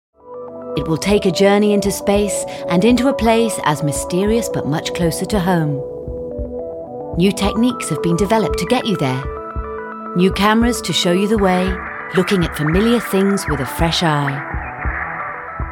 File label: narration documentary